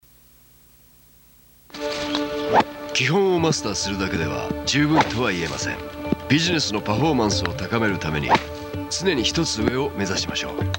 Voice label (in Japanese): ナレーションサンプル; アクセンチュア スタッキング